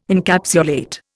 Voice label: "Encapsulate", Indian

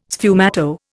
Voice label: "sfumato", Indian

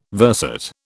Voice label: "verset", British

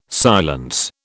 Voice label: "silence", British

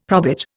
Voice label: "probit", Indian; Dictionary

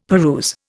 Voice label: "peruse", Indian; Dictionary

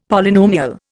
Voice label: "Polynomial", Indian; Dictionary

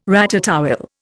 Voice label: "ratatouille", Indian

